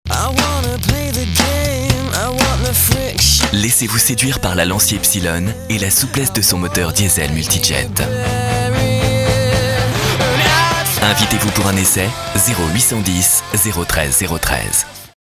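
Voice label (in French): vendeur efficace, voix off publicite, homme; LANCIA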